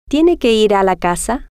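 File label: tiene Que Ir A Casa, Spanish, female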